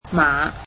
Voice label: "horses", cantonese

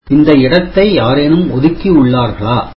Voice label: tamil Is this seat taken

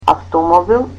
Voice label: "car" Macedonian

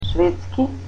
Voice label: "Swedish", Macedonian

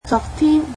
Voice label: "yesterday", Cantonese

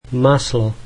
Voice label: slovak butter

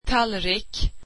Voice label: swedish plate

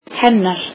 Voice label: icelandic her

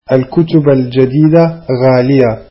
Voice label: arabic new books are expensive

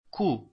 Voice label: alphabet q, italian

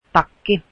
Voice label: coat, Finnish

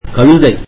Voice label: tamil donkey

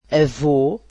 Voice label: "grandfather", Portuguese

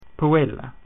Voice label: "girl", Latin

